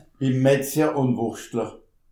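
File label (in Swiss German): "metzjer", Alsatian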